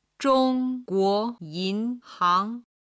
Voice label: "zhong guo yin hang", Chinese

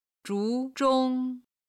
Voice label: "zhu2 zhong1", Chinese

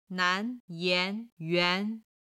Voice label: "nan2 yan2 yuan2", Chinese

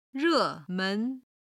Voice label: "re4 men2", Chinese

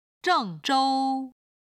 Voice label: "zheng4 zhou1", Chinese

